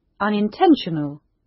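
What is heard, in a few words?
"unintentional", British; Crime and punishment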